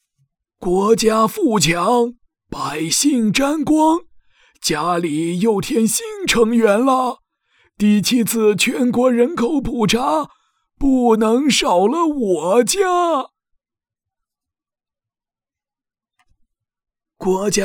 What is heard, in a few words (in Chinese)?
老人和普查人员角色2个